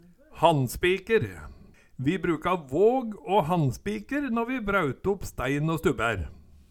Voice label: "hannspiker", Numedalsmål

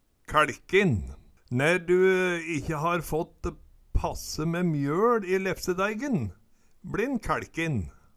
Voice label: "kæLkin", Numedalsmål

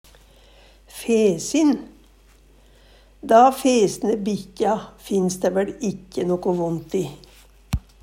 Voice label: fesin, Numedalsmål; Da fesne bikkja finns dæ væL ikkje noko vont i?; Adjektiv

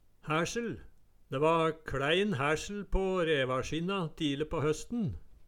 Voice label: "hærsel", Numedalsmål

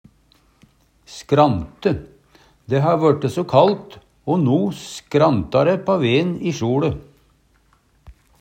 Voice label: "skrante", Numedalsmål; Verb

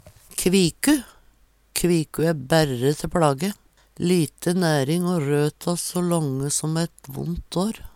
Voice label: "kviku", Numedalsmål; Kviku æ berre te pLage. Lite næring o røtta so lange som ett vont år.

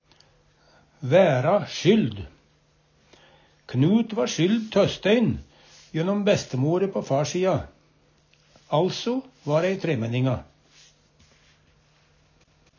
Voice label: vaera skyld, numedalsmal

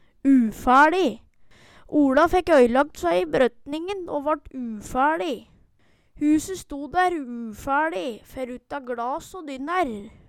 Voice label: ufæLi, Numedalsmål

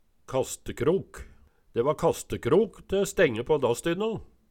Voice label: kastekrok, Numedalsmål

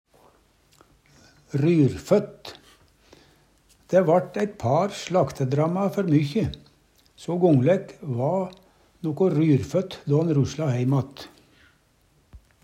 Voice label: ryrføtt, Numedalsmål

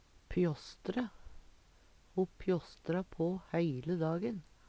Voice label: pjasstre, Numedalsmål; Verb

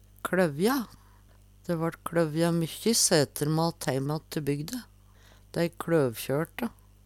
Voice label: kLøvja, Numedalsmål; Dæ vart kLøvja mykkje sæterrmat heimatt te bygde, Dei kLøvkjørte.

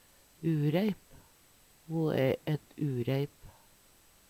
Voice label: "ureip", Numedalsmål; Ho æ ett ureip.

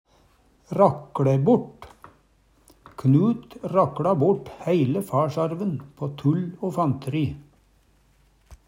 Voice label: rakLe buRt, Numedalsmål; Knut rakLa buRt hæile farsarven på tull o fanteri.

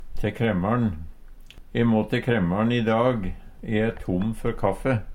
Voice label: te kremar`n, Numedalsmål; butikk; Uttrykk; E må te kremar`n i dag, e e tom før kaffe.

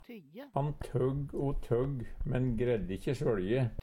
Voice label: "tyjje", Numedalsmål; Han tøgg o tøgg men greidde ikkje svøLje.

